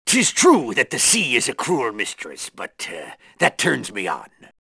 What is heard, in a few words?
sea captain tap